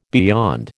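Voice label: "beyond", American English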